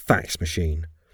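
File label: "fax machine", English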